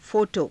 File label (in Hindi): "pho to", Hindi